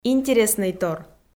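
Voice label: "интереснӧй тор", Komi, female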